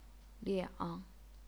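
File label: "liang", Chinese, third tone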